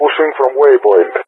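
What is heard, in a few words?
"pushing from waypoint", English speech; Messages; Allied Flight; DCS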